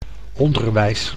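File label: "onderwijs", Dutch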